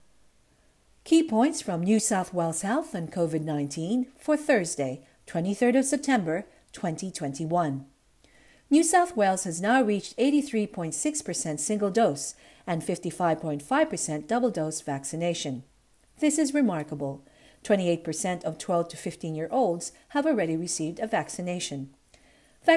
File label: english; covid-19 coronavirus; covid-19 daily key points